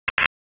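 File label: Raleigh Police Special Operations Tactical, p25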